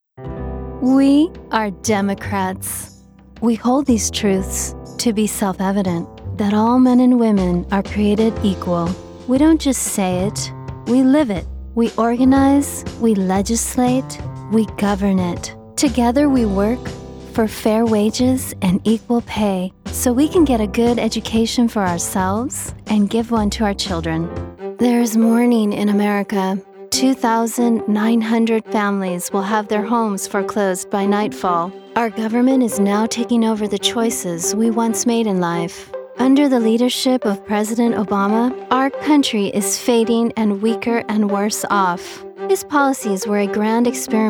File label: POLITICAL AD